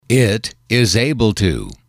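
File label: it is able to can, English, male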